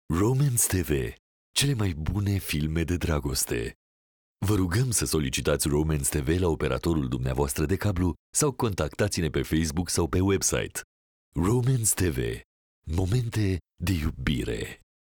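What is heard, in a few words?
romanian male commercial; voice demos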